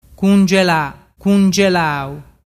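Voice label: "cungelalau", Ligurian dialect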